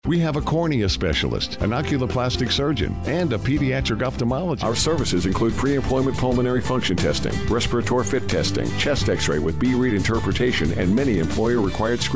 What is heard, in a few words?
Narration Male Industrial